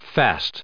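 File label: "fast", US English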